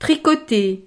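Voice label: "tricoter", French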